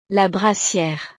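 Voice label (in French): "la brassiere", French; ropa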